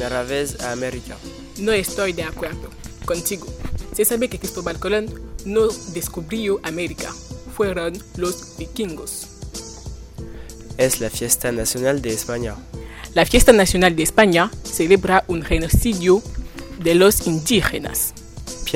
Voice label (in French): Debat Espagnol